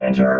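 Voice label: "enter", robot voice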